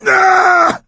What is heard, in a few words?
incapacitated injury, voice; Left 4 Dead 2; coach